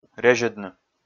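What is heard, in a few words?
"резьӧдны", Komi, male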